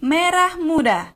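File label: pink, Serbian; gcompris-data; colors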